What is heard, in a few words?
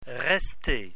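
"rester", French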